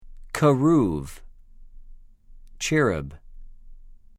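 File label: "כְּרוּב", Hebrew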